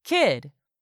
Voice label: "kid", English; 3000 words